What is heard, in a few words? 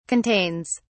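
"contains", British English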